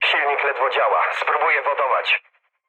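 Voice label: damaged engine, mood low, Polish speech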